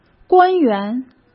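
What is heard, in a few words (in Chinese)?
"官员", Mandarin Chinese; hsk; chinese-flashcards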